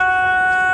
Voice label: ewe, F#5; 音MAD; Z会

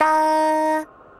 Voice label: "da" D#5; 音MAD; 少女终末旅行

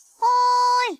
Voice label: "oi" B5; 东方大炮弹

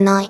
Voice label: "nai" G3; Lycoris Recoil

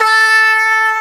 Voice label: "faaa" A5; 珈百璃的堕落; SATA

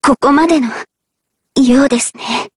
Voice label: vo game finish win; 音击

